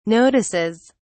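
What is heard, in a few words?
"notices", British English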